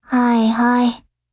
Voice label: AGREE JP

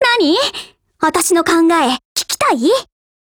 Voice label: DIALOGUE JP

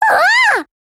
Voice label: MOOD, JP

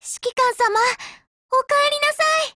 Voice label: LOADING, JP

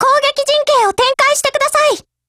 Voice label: attack, JP

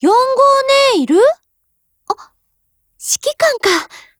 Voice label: DIALOGUE, JP; UMP9

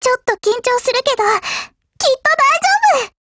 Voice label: GO ATTACK JP; SuperSASS

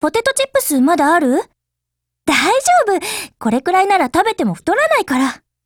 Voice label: DIALOGUE JP; SPAS12

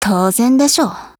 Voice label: WIN, JP

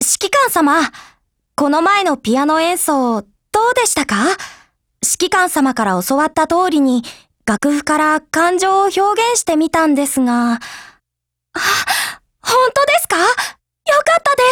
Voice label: DIALOGUE WEDDING, JP

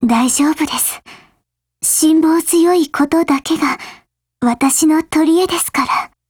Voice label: DIALOGUE JP; Ribeyrolles

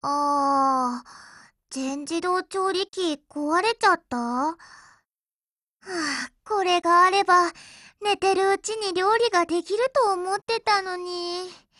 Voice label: DIALOGUE WEDDING JP; Jatimatic

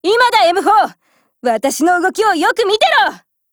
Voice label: SKILL JP

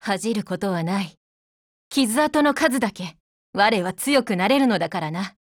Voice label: RETREAT JP; KSVKMod

